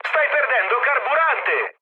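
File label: Italian tank damaged mood med, speech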